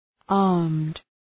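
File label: "armed", English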